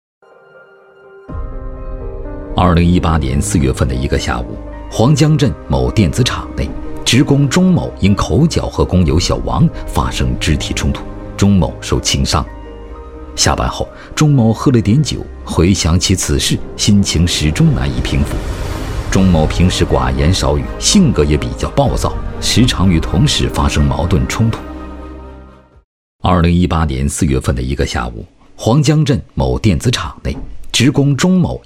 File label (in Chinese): 男 宣传片 平安文化宣; 黄江镇